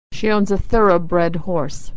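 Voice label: thorough, American English; Consonant Sound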